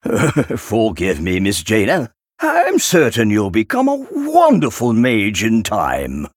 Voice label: Male Human; Antonidas; Jaina